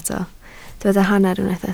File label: "hanner", Welsh